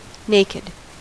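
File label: "naked", American English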